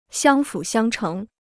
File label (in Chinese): "相辅相成", Chinese